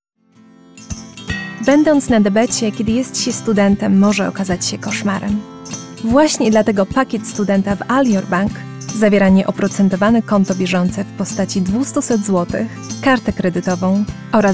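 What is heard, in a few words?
Polish commercial; GoLocalise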